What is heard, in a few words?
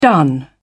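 "done", British English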